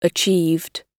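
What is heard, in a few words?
"achieved", British English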